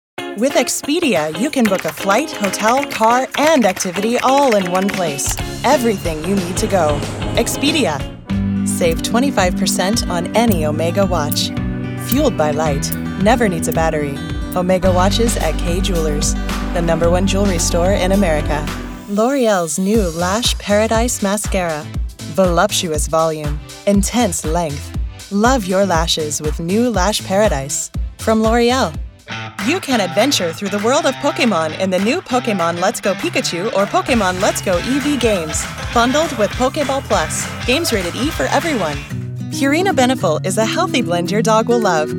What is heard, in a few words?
commercial